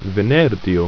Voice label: "venerdio", ido